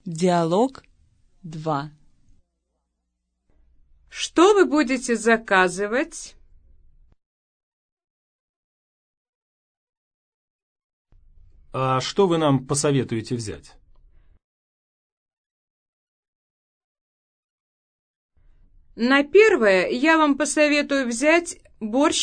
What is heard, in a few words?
dialog, russian